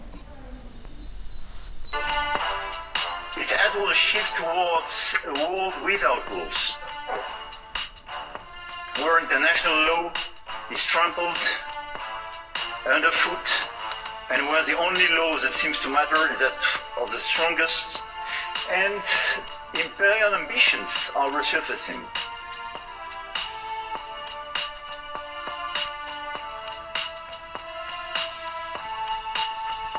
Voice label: voice dsp plus aec off level matched